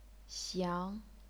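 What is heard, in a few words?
"xiang", chinese pinyin, second tone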